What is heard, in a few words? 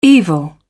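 "evil", British English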